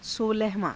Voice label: "solahavaa~", Hindi